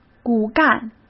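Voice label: "骨干", Mandarin Chinese; chinese-flashcards; hsk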